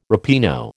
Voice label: "ripieno", American

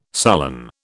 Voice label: "sullen", British

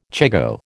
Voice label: "chigoe", American

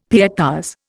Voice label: "pietas", Indian; Dictionary

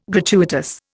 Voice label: "gratuitous", Indian

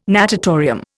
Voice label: "natatorium", Indian

